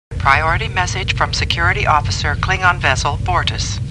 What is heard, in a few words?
Star Trek Computer Voice; StarTrekLCAR